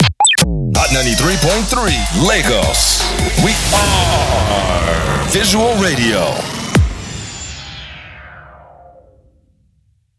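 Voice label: Sweeper, radio; Hot 93.3 Lagos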